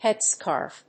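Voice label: "headscarf", English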